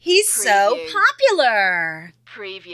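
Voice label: Female He So Popular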